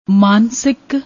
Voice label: "maansik", Punjabi; ਮਾਨਸਿਕ